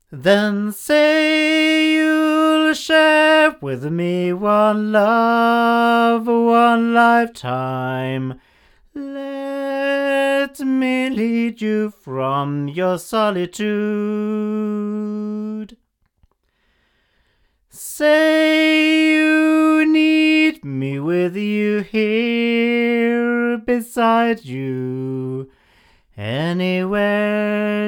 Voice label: Oktava ml-53 male